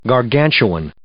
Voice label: "gargantuan", English